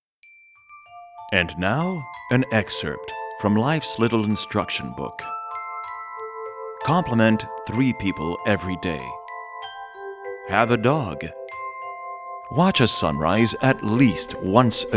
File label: voice over